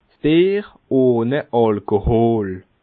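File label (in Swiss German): "ohne alkohol", Bas Rhin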